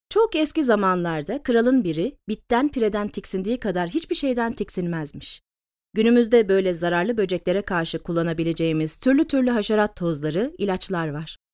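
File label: Turkish, female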